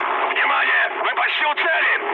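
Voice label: Russian near waypoint mood high, speech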